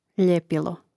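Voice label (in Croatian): "ljepilo" Croatian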